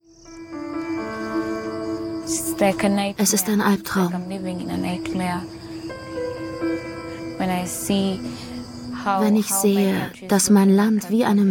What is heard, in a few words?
Voice Over; Arte; Kampfgefaehrtinnen